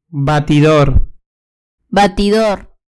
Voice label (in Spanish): "batidor", Vocabulario